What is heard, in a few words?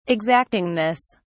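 "exactingness", British English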